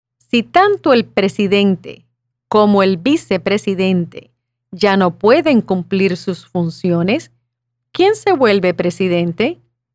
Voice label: Spanish